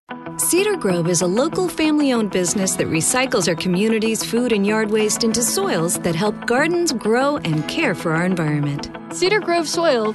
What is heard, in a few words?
Informative